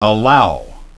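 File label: "allow", English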